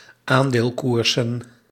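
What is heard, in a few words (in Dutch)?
"aandeelkoersen", Dutch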